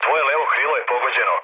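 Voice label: Serbian left wing mood low, speech; War Thunder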